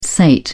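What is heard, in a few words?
"sate", British English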